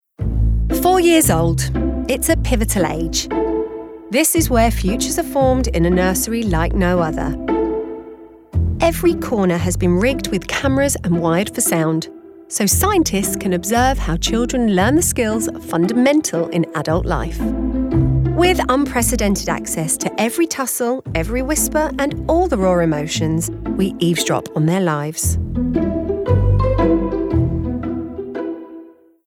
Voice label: Narration